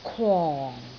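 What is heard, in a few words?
"kwong4", Cantonese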